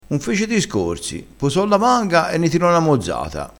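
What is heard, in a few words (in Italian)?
"mozzata", dialettale crespinese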